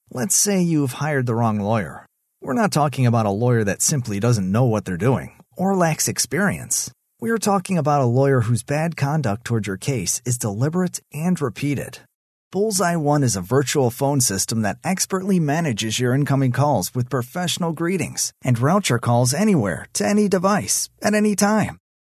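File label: English, American, male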